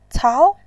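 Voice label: "cao", Mandarin Chinese, tone 2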